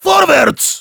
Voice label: Heavy go, de; "Vorwärts!"